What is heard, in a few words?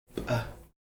"p̓", Heiltsuk